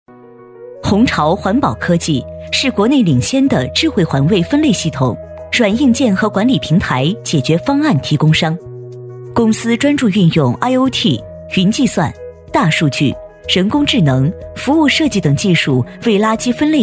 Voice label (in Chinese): 女 企业专题 年轻稳重; 科技公司